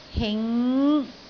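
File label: "hing5", Cantonese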